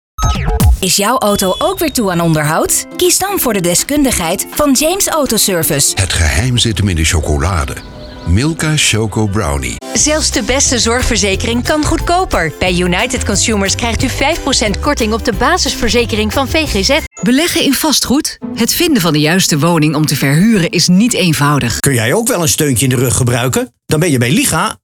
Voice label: Advertising Showreel Audio Imaging; Spinmarketing